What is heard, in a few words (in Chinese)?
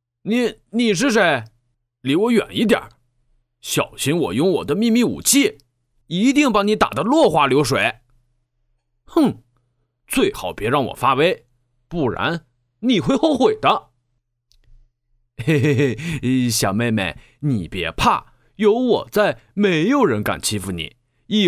动画男孩; 男孩/少年/青年/中年/老年/男人全能动画、全能卡通角色，游戏角色配音